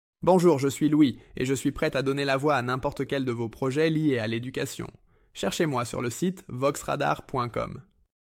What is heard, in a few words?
france education